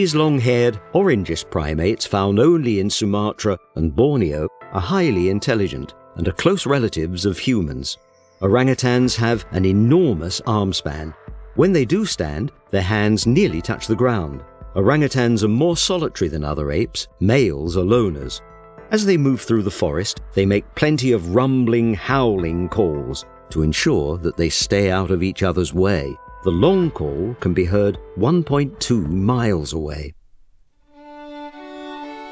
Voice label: Documentary